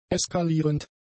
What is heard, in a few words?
"eskalierend", German; adjectives